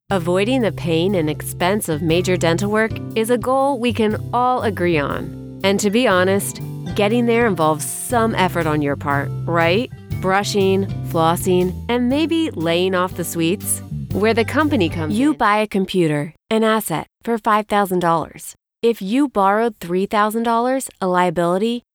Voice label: E-Learning, en-NZ

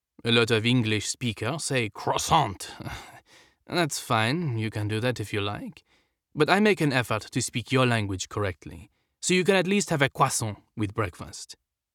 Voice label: French, accent; Denton, TX, USA

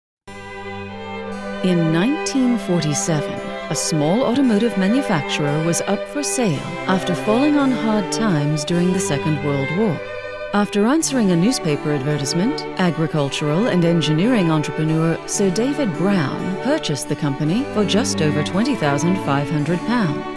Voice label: British RP Documentary

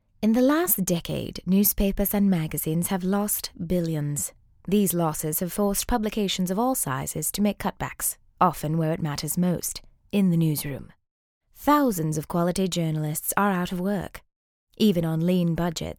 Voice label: British

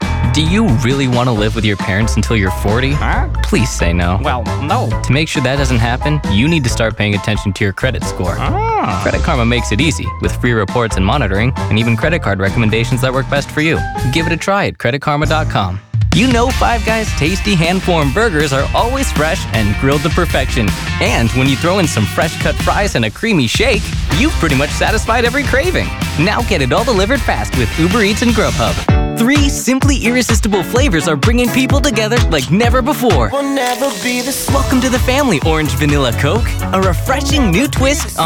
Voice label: Commercial, en-GB

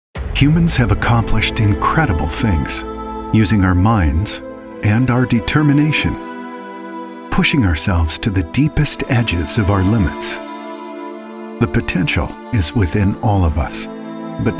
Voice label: INSPIRING Promotional

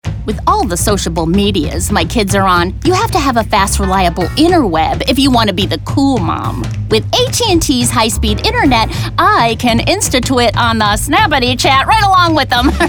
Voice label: Commercial, en-AU

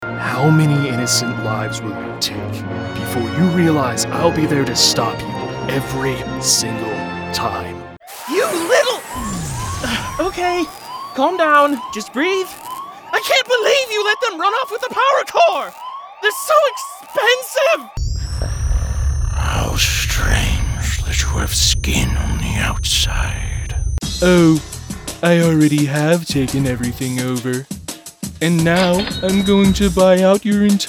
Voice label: Animation

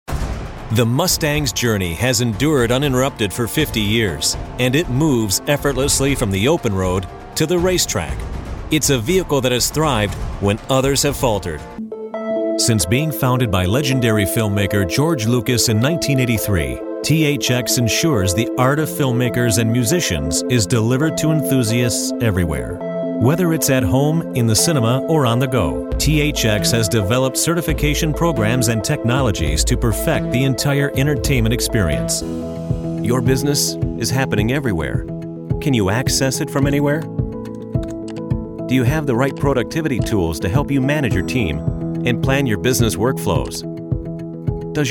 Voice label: Narrations, en-US

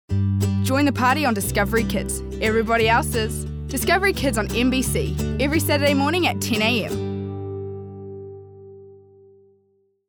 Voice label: VO Kiwi Promo

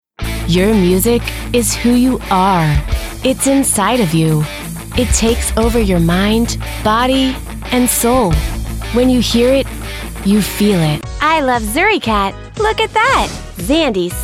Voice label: Commercial, en-NZ

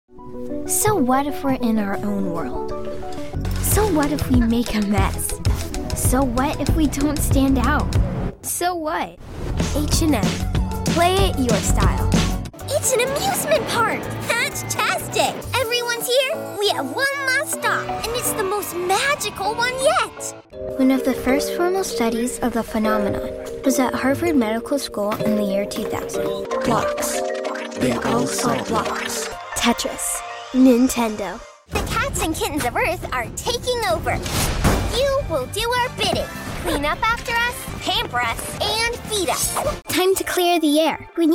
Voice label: Commercial, en-US

